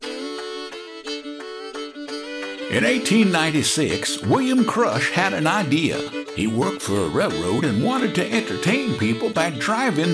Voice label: character demo